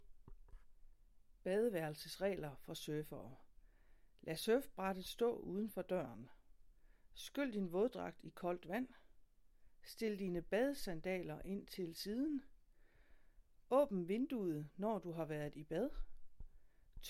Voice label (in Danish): Badevaerelsesregler Dansk